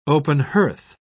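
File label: "open-hearth", British English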